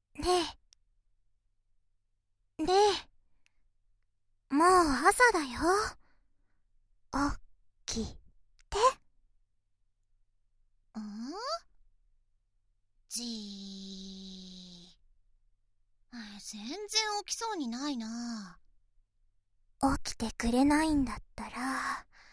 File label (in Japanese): 目覚ましボイス, ASMR